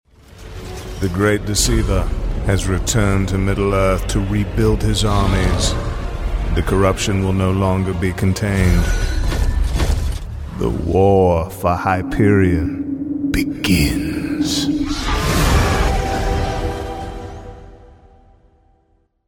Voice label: Master Wizardly